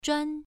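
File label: "zhuan1", Chinese pronunciation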